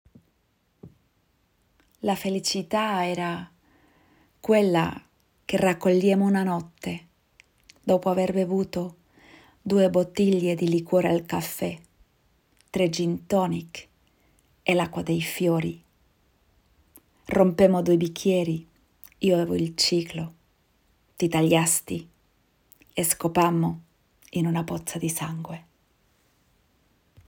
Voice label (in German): Italienisch